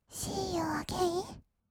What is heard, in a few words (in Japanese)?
"しーゆーあげいん", kawaii voice; ASMR; 1200 kawaii voice!!; 音ゲー